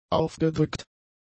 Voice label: "aufgedrückt", German; adjectives